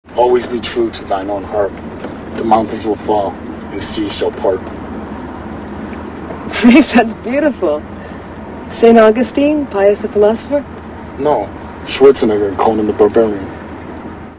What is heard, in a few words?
poetry